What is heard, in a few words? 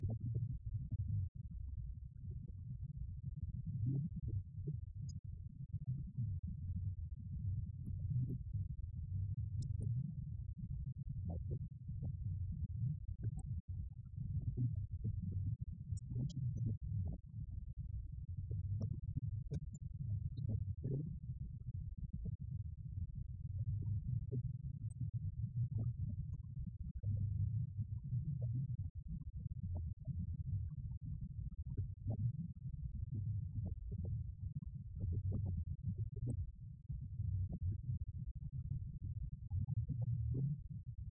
radio banter; The Clifford Ball